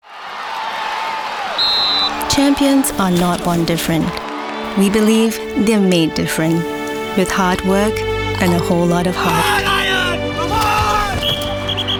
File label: english international movie trailers, Trailers de películas; Una actriz de voz cálida, amigable y versátil con atractivo global.; singaporean english